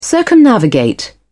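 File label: "circumnavigate", British English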